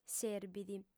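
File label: "serbit", Sardinian, female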